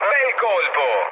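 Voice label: Italian player killed enemy mood low, speech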